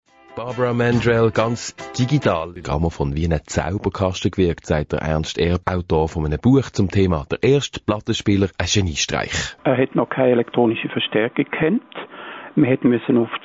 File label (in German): Telefonqualitaet; analogen Telefon (Fe TAp 791-1)